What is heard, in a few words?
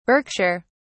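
"berkshire", English, British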